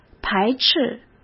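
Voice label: "排斥", Mandarin Chinese; chinese-flashcards; hsk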